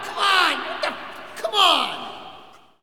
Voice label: Hockey Male "Come On"; Hockey Vocal Sounds